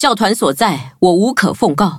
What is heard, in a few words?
loading, zh-hant; Cookie Run